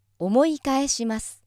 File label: female